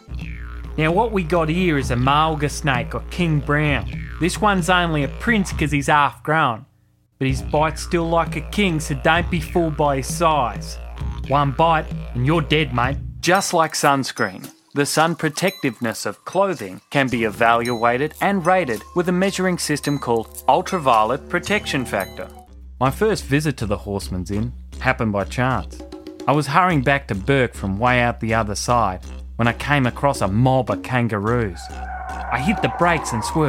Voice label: Australian Accent